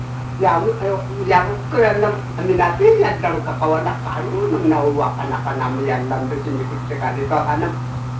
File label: Yami Language, M, 69; Akay, kayokay rana na koman so nimay!; 祖父，起來吃芋糕！; Grandpa, come eat taro cake!; Iranmilek; 東清村; 男